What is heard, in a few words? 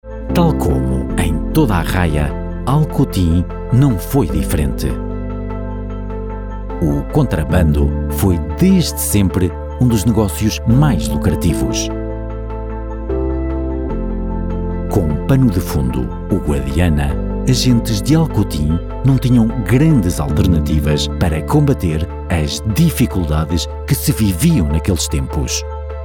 Documentary